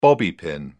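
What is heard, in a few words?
"bobby pin", British English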